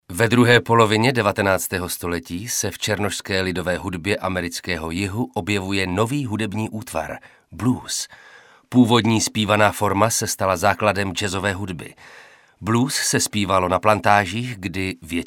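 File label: male Czech documentary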